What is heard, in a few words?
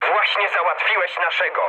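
Polish player killed ally mood medium; speech; War Thunder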